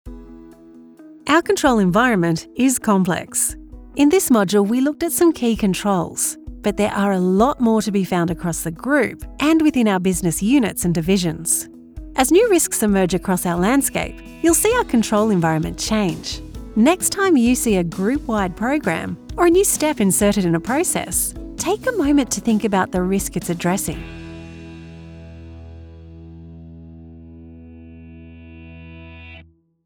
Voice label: conversational elearning